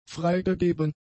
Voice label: "freigegeben", German; adjectives

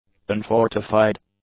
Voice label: "unfortified", english; adjectives

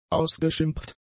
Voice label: "ausgeschimpft", German; adjectives

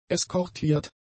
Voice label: "eskortiert", German; adjectives

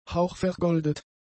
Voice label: "hauchvergoldet", German; adjectives

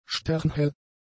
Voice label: "sternhell", German; adjectives

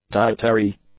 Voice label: "dietary", English

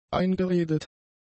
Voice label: "eingeredet", German; adjectives

